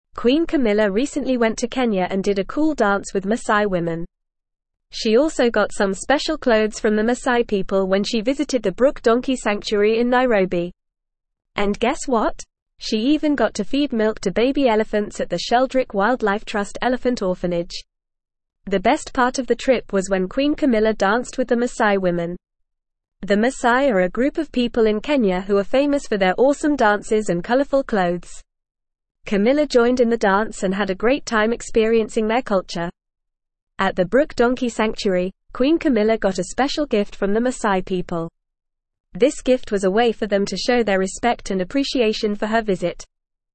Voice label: English Upper Intermediate FAST Reading; Queen Camillas Kenya Visit Cultural Engagement and; English Newsroom

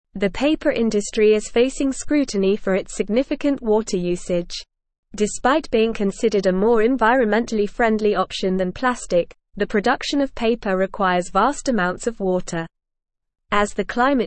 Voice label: English Advanced NORMAL Reading; English Newsroom; Challenges in Paper Industry Water Usage and Environmental

